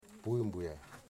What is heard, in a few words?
"wind", Wamut